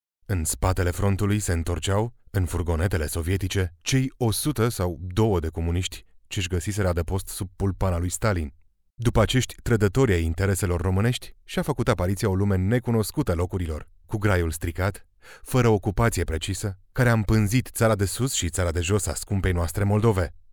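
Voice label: romanian male narration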